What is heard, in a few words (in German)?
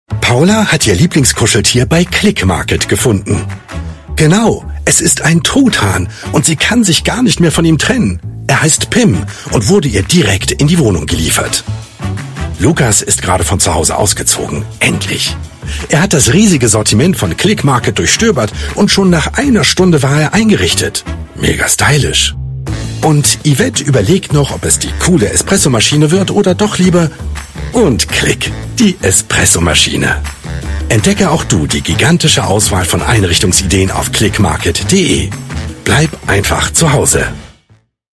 werbung; clickmarket